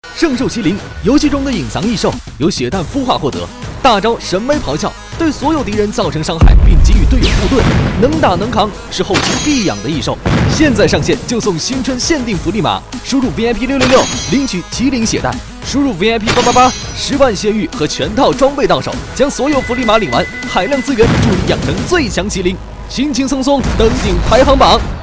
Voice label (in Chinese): 男 信息流 养成攻略